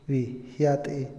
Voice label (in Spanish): migración, Otomí, hombre; INALI